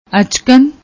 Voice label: "achkan", Punjabi; ਅਚਕਨ

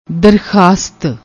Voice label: "darkhasat", Punjabi; ਦਰਖਾਸਤ

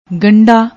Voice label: "gandda", Punjabi; ਗੰਢਾ

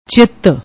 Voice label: "chiit", Punjabi; ਚਿੱਤ

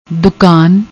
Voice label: "dukan", Punjabi

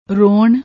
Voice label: "ronn", Punjabi

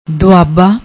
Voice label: "duaba", Punjabi

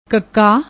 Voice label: "kuka", Punjabi; ਕੱਕਾ

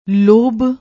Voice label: "lobh", Punjabi; ਲੋਭ

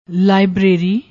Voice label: "liberary", Punjabi

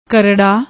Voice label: "krarra", Punjabi; ਕਰੜਾ; Gurmukhi; Shahmukhi; کرڑا; adjective, masculine; tough, hard, adamantine, stiff; tight; difficult, arduous; dry; stony

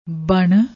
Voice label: "ban", Punjabi

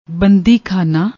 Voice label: "banddi khaana", Punjabi

